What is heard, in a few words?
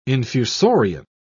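"infusorian", British English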